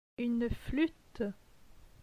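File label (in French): "Une flute", French; phonetique; les voyelles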